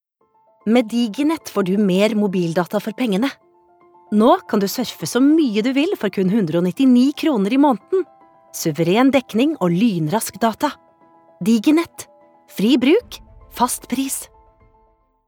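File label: Norwegian advert, norwegian female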